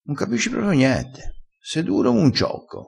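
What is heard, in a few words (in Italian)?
"duro om un ciocco", dialettale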